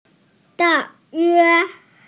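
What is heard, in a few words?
"da4yue1", Mandarin Chinese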